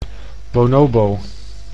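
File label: "bonobo", Dutch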